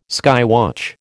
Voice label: "skywatch", American